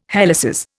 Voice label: "helices", Indian

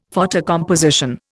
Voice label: "photocomposition", Indian; Dictionary

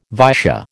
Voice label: "Vaisya", American

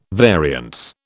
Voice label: "variance", British

